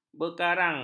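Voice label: "berkarang", Malay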